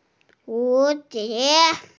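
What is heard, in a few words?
"hu2die2", Mandarin Chinese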